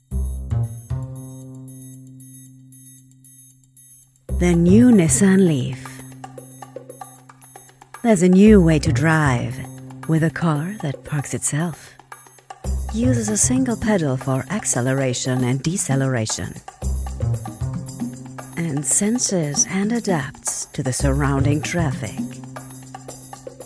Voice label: Commercial, en; Nissan Leaf